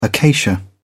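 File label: "acacia", English, British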